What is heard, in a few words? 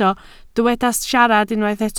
"siarad", Welsh